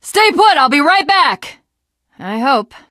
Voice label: jumping off bridge, teengirl; Left 4 Dead; Source Engine; video games